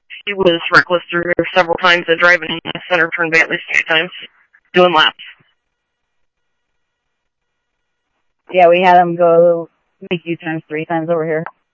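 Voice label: police radio dispatch, Scottsdale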